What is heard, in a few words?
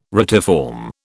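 "retiform", British English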